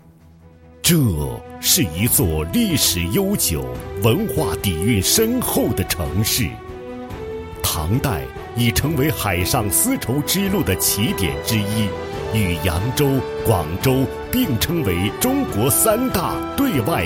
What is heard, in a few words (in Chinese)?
男,专题,人文影片